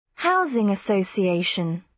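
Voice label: "housing association", British English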